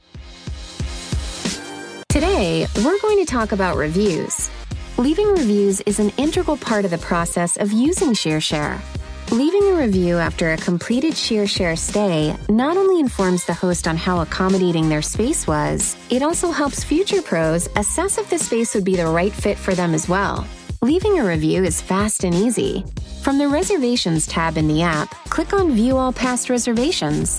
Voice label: EXPLAINER UPBEAT HIP COOL; Shearshare